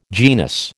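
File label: "genus", American English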